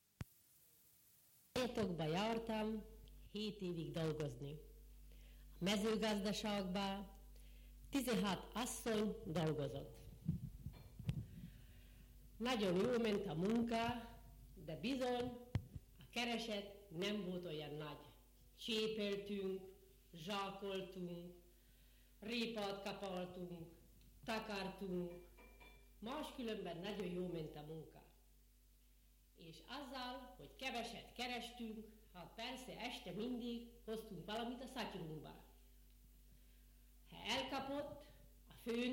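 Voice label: dialect collection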